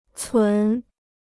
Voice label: "存", Chinese

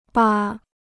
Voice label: "扒", Chinese

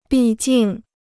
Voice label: "毕竟", Chinese

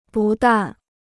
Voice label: "不但", Chinese

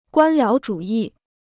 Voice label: "官僚主义", Chinese

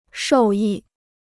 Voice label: "受益", Chinese

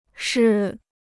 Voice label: "式", Chinese

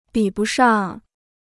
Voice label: "比不上", Chinese

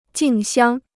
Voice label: "竞相", Chinese

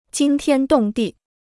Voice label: "惊天动地", Chinese

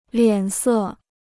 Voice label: "脸色", Chinese

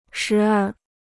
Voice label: "时而", Chinese

